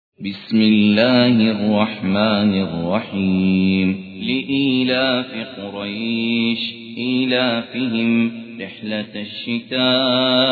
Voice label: ترتیل; عبدالله الکندری; سوره قریش

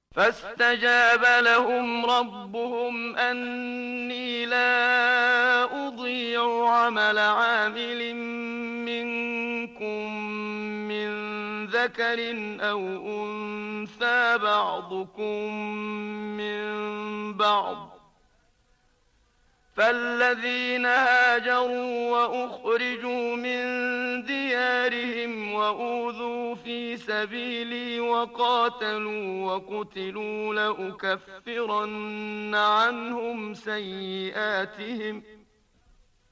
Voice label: تلاوت مجلسی سوره مبارکه آل عمران; محمد صدیق منشاوی; آیه 195

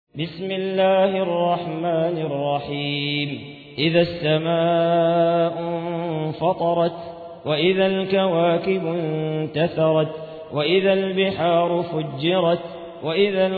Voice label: ترتیل سوره انفطار

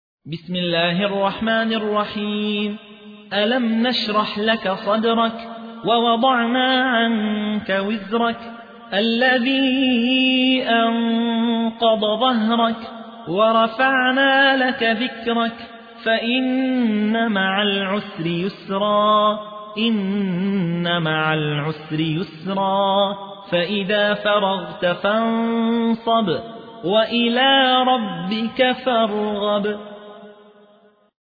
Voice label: ترتیل; یحیی حوی; سوره انشراح

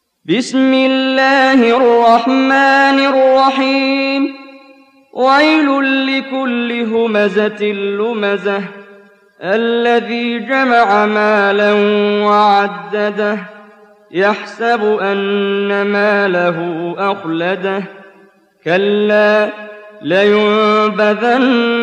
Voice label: ترتیل سوره همزه; استاد محمد جبریل

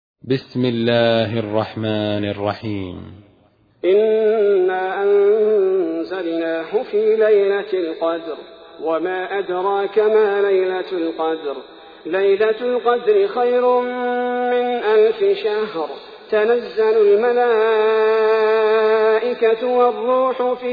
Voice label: ترتیل سوره قدر; الثبیتی

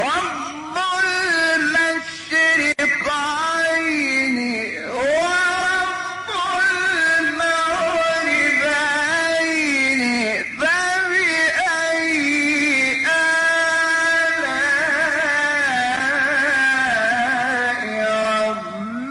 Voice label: تلاوتی زیبا از سوره الرحمن; استاد شحات انور

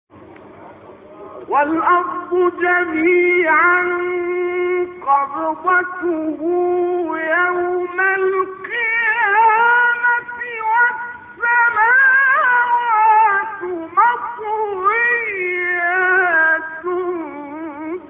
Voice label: فراز تلاوت آیه 67 سوره زمر; نقشبندی

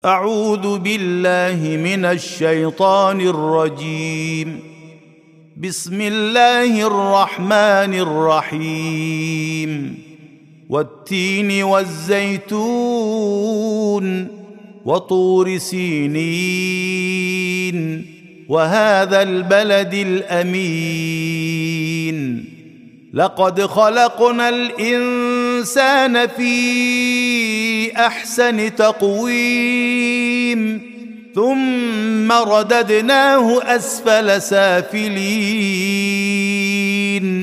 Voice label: ترتیل سوره مبارکه تین

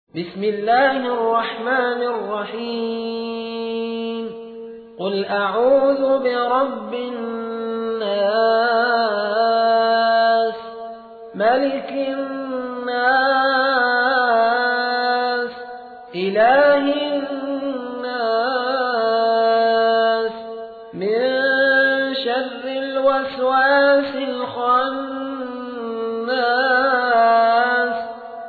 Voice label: ترتیل; سوره ناس

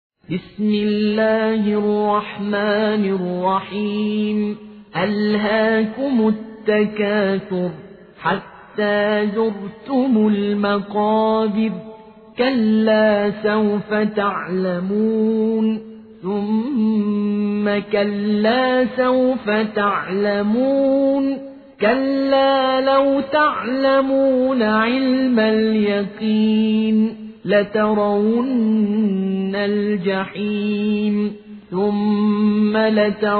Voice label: سوره تکاثر حفص عن عاصم; الطرابلسی

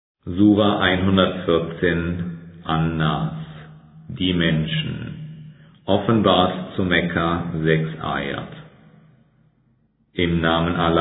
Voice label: ترجمه گویای قرآن کریم به زبان آلمانی; سوره ناس

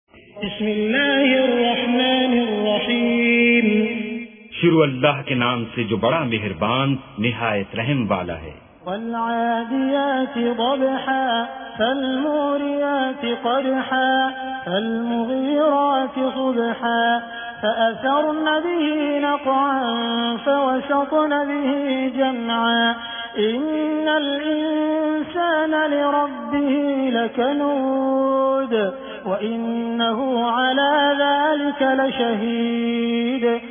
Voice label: ترجمه گویای قرآن کریم به زبان اردو; با تلاوت سدیس و شریم; سوره عادیات

